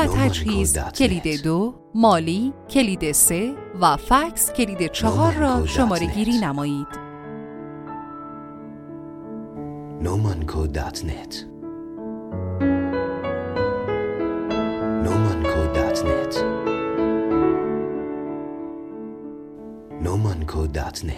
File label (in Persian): نمونه صدای گوینده حرفه ای برای تلفن گویا; شرکت مراص